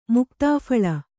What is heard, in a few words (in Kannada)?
"ಮುಕ್ತಾಫಲ", Kannada